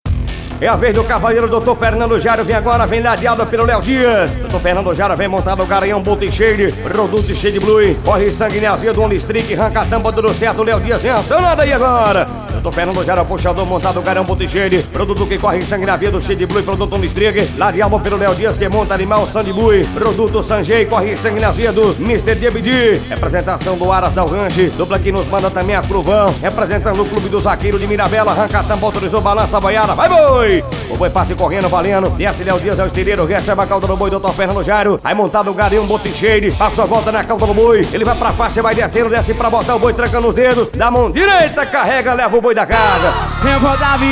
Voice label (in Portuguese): Locutor